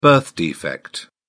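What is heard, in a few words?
"birth defect", British English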